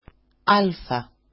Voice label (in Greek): "alfa", greek; alphabet